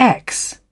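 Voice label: "evil", British English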